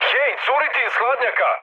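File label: Serbian damaged water mood high; War Thunder; speech srb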